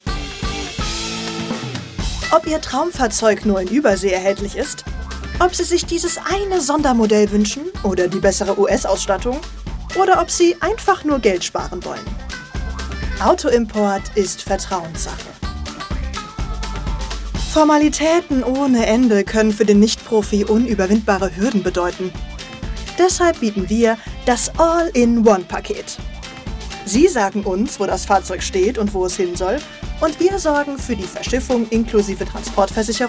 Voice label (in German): Imagefilm US Cars; LOFT VOICES